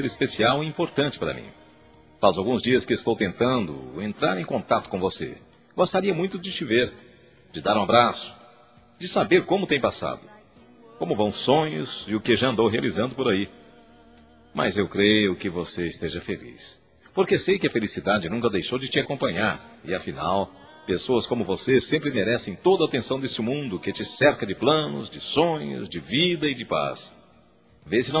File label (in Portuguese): SAUDADE AMIZADE M